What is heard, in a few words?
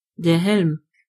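helmet, German voices words; gcompris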